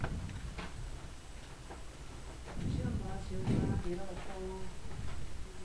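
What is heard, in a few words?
"neck", spoken word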